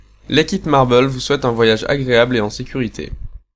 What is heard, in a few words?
French; marble